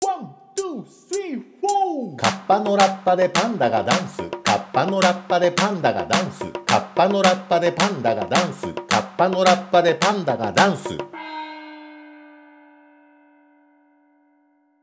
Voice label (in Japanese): 早口言葉リズム（中級編）